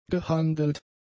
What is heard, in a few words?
"gehandelt", German; adjectives